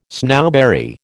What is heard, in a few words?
"snowberry", American